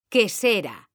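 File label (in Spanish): "quesera", female; mekanta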